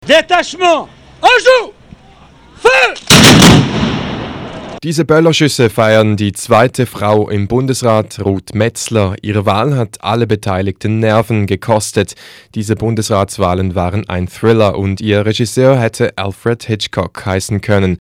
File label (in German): Deutschland M